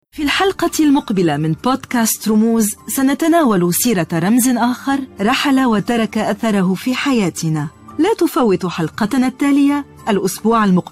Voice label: arabic podcasts